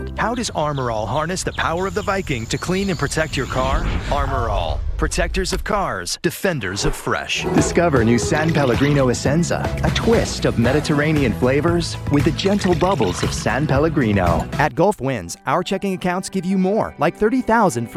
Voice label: Commercial